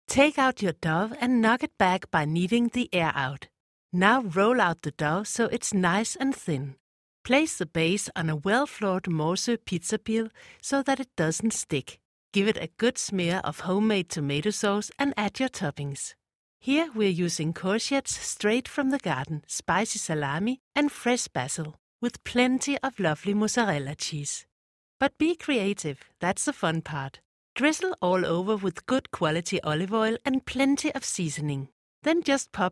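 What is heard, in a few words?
Danish, accented English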